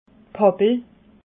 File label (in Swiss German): "pappel", alsace